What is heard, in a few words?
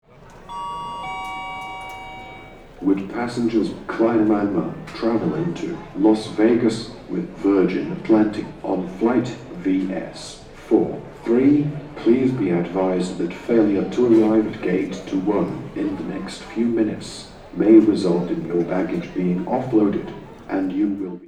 Airport announcement, UK; Gatwick airport